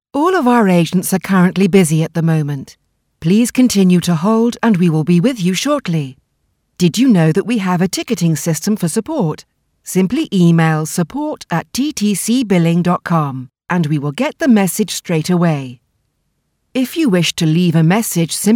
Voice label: Keep Holding Prompts; TTC